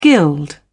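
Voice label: "gild", British English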